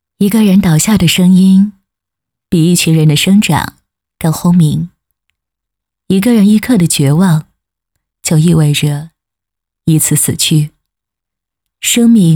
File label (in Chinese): 女 独白; 一个人倒下的声音